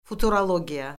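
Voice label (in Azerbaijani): "futurologiya", Azerbaijani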